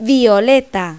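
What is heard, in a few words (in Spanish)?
"violeta", Spanish; Pipo-Aprende musica con Pipo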